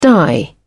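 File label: "die out", British English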